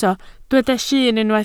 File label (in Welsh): "llyn", Welsh